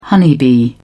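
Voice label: "honeybee", British English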